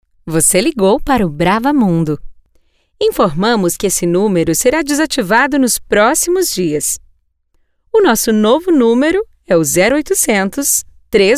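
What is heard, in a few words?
portuguese brazilian interactive voice response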